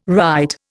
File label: "wright", Indian